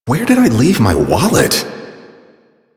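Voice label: Citizen Male, English; Warframe; Mall; Citizens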